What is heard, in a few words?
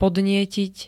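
"podnietiť", Slovak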